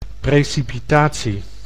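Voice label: "precipitatie", Dutch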